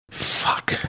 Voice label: quietly saying fuck